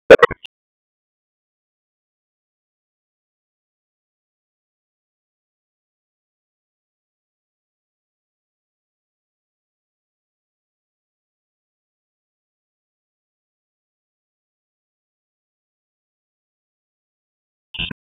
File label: Police Spec Ops Tac 4, p25; Raleigh Police Spec Ops Tac 13; wake